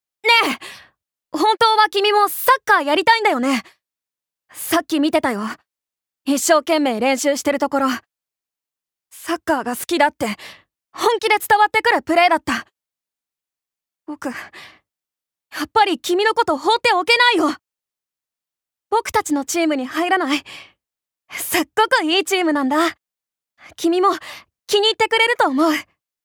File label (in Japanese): アニメ